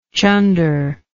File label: "chunderous", English